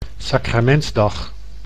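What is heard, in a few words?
"Sacramentsdag", Dutch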